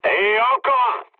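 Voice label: player killed enemy, mood low, French; War Thunder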